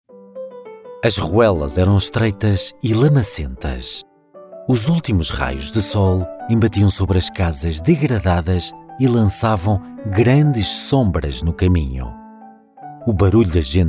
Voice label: Audiobook